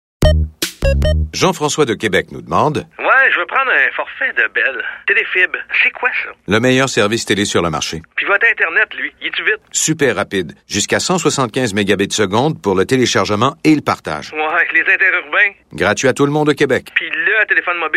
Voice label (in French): Publicite; Bell Canada